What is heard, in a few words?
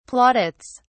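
"plaudits", British English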